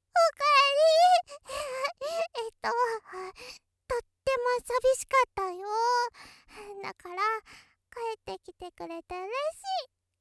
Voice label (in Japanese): ロリ おかえり